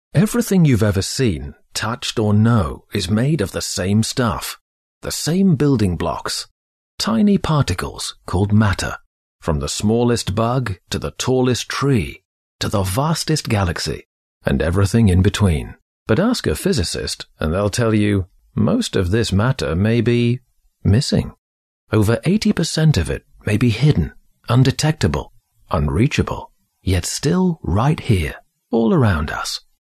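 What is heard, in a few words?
British English, male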